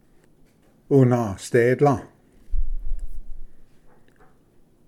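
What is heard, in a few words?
"unasdetlv", Cherokee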